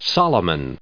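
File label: "Solomons", US English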